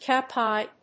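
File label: "cow pie", English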